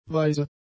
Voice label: "weise", German; adjectives